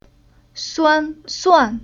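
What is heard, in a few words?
"Suan", chinese syllable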